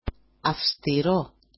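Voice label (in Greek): "afstiro", Greek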